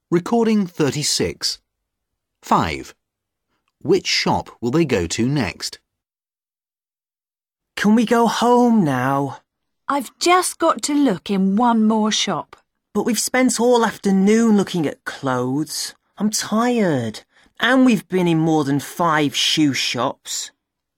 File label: dialog